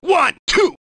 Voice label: "1 2" drill sgt, Voice; numbers and letters

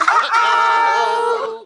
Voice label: uh oh; ooh ahh; teletubbies

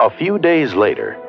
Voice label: few days later announcer

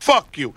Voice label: "fuck you", male; Voice; expletives

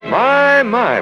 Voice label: "my my", male; Voice; expletives

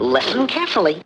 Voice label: listen carefully cartoon; Voice; introductions

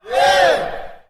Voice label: "yeah", group of men

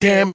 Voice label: "damn", expletives

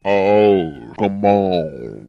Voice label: "oh come on", male; Voice

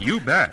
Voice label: announcer; you bet

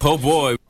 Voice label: "oh boy", male; ooh ahh

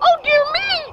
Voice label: "oh dear me" girl; expletives

